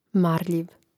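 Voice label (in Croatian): "marljiv", Croatian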